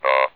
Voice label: UH, votrax speech; vectrex